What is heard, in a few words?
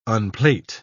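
"unplait", British English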